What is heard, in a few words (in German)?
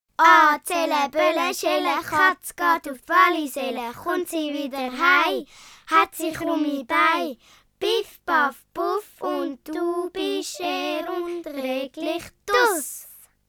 schweizerdeutsch